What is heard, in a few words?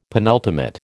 "penultimate", American